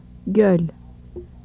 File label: œ, turkish sound example